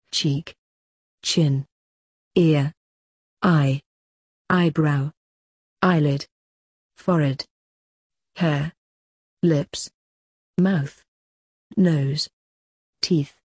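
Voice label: British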